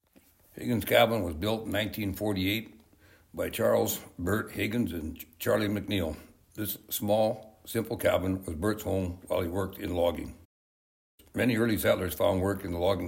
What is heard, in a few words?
voiceover; Higgins Cabin, built in 1948 by loggers Charles “Bert” Higgins and Charlie MacN; bowenislandmuseum